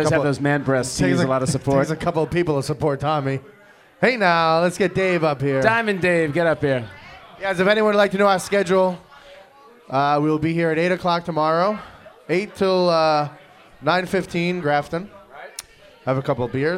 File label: Radio Grill Commercial